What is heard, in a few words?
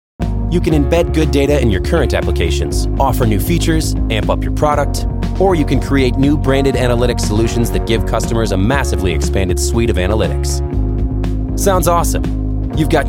narration